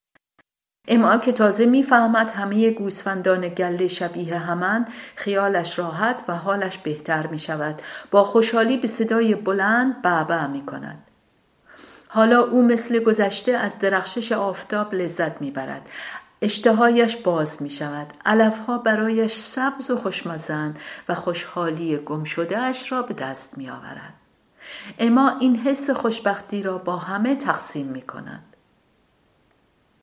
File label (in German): farsi